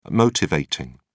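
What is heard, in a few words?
"motivating", British English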